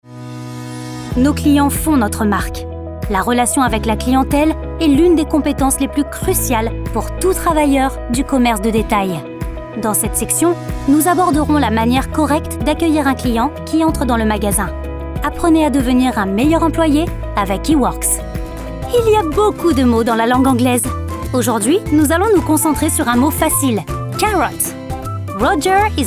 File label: Elearning demo